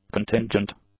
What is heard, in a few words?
"contingent", English; adjectives